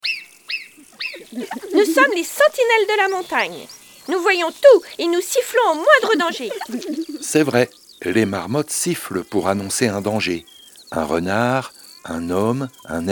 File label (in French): Histoire; Un jour à la montagne; les marmottes